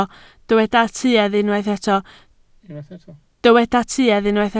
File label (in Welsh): "tuedd", Welsh